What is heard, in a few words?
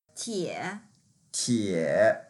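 "tie3", Mandarin Chinese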